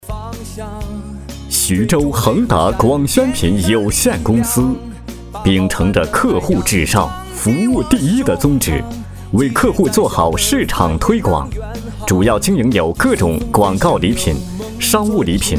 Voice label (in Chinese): 男, 宣传片; 徐州恒达广宣品